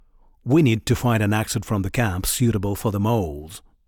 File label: Voice Monolog